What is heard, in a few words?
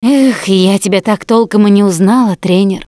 Survivor Mourn, russian; Voice; Producer; Coach